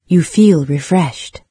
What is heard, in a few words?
refreshed, en-UK, NARRATOR; Fate